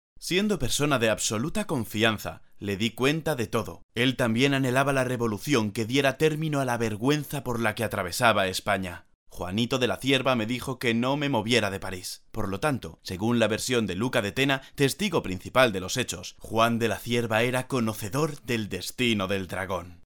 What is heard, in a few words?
locutor spanish voiceover